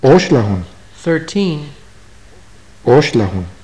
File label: numbers, maya